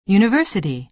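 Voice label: "university", American English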